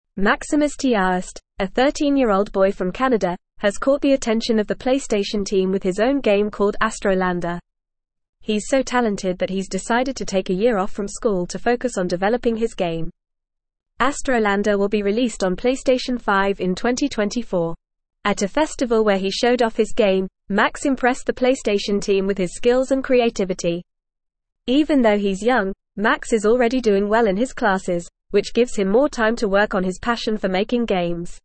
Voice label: English Upper Intermediate FAST Reading; 13 Year Old Canadian Boy Develops PlayStation 5 Gam; English Newsroom

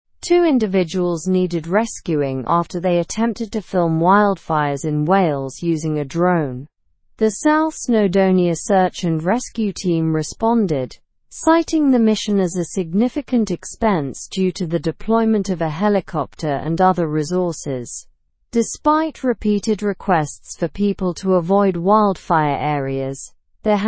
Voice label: english news upper intermediate; wildfire dangers and drone filming in wales; slow

